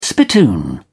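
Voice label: "spittoon", British English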